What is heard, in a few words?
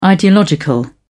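"ideological", English, British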